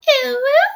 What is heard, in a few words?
girly uwu